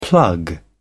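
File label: "plug away", British English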